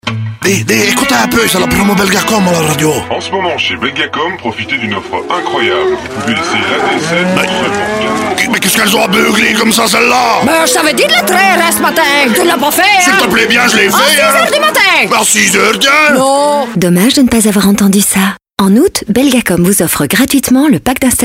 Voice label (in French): Voix Mûre Accent Ardennes OFF; Belgacom